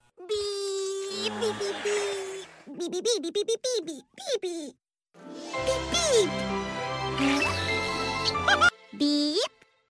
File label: Female Animation